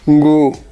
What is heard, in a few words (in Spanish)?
"uno", Ixcateco; Números